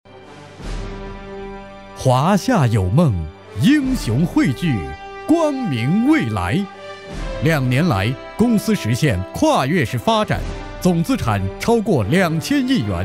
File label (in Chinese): 企业宣传 保险硬朗大气; 华夏保险